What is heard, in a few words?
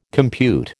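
"compute", American English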